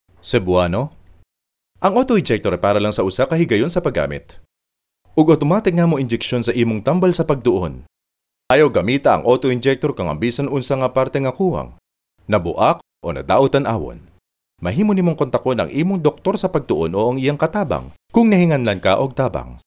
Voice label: Cebano Male; Filipino

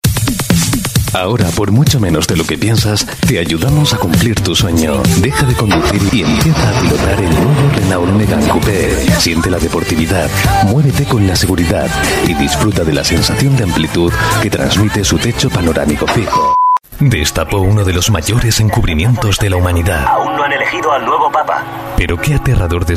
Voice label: European Spanish Male Commercial

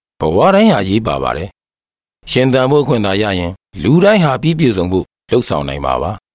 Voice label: Burmese Male

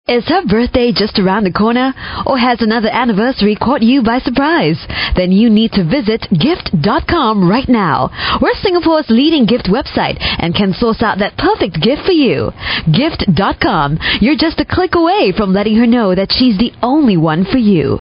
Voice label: Asian English, Singaporean Female

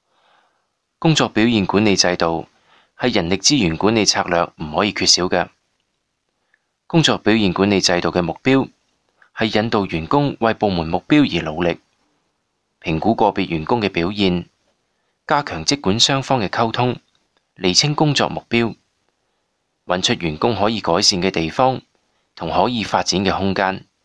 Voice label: Cantonese Male

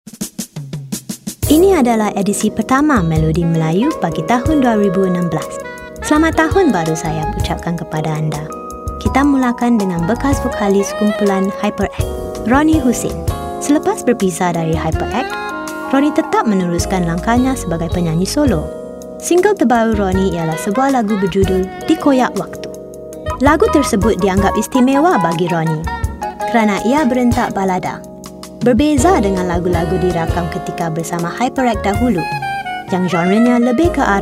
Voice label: Malay Female Radio Host

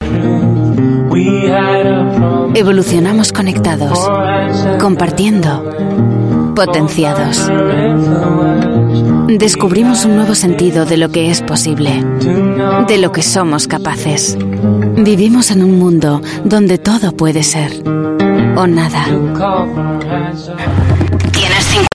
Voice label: Corporate European Spanish Female

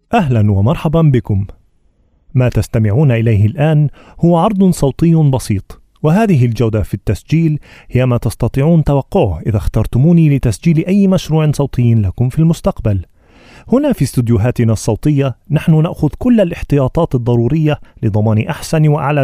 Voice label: Arabic, Arabic Male

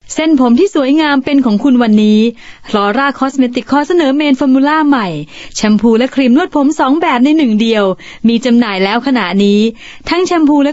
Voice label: Thai, Female

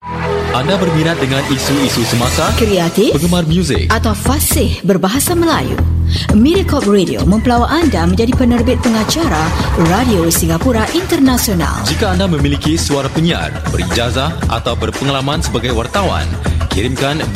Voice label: Malay, Malay Male

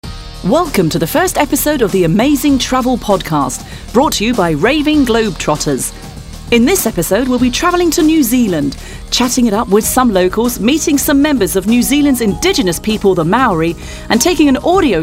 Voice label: UK English Travel Podcast, English UK Female

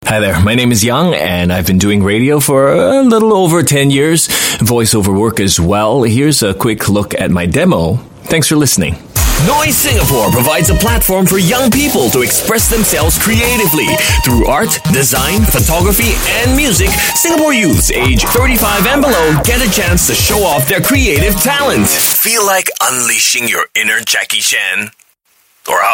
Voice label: Asian English VO, English Singaporean Male

